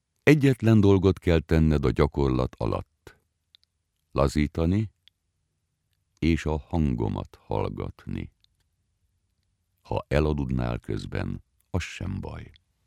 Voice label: Meditation, hungarian, M